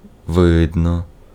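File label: Ukrainian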